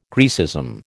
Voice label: "Graecism", American

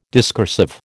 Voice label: "discursive", American

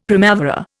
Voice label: "primavera", Indian; Dictionary

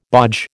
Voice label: "bodge", American

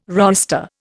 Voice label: "roister", Indian

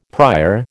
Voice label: "prior", American

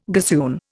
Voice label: "gossoon", Indian

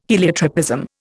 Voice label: "heliotropism", Indian

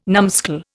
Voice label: "numskull", Indian

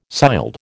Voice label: "sild", American

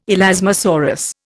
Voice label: "elasmosaurus", Indian

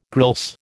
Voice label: "grilse", American

